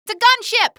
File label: gunship, female; coast; barn; Half-Life 2 Voice Lines